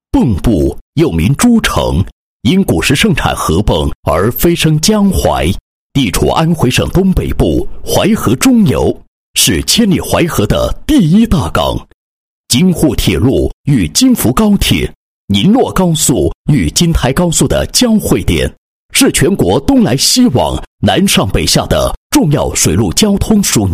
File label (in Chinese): 男 企业专题; 蚌埠市长淮卫临港产业园